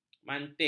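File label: "mantik", Malay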